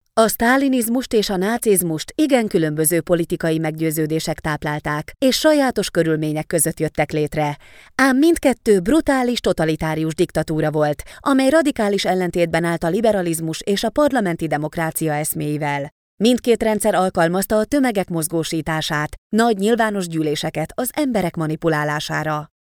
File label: hungarian female